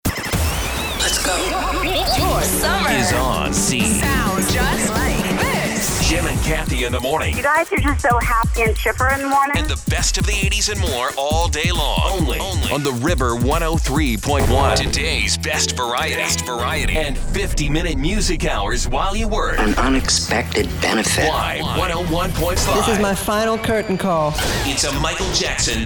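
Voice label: voice over hot ac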